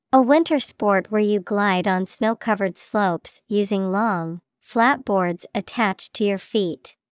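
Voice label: skiing, English vocabulary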